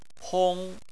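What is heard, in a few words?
"hong", Chinese, first tone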